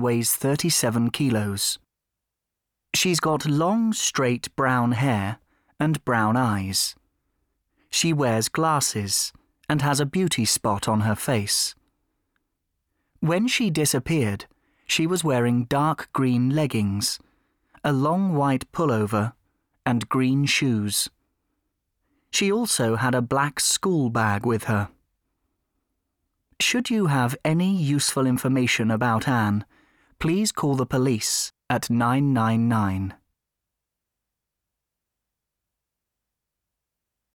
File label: Missing person announcement